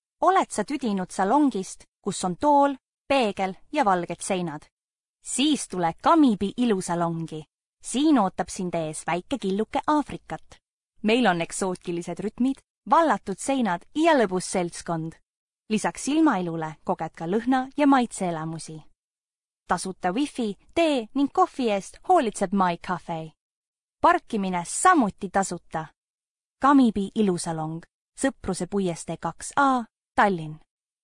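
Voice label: Estonian, commercial; GoLocalise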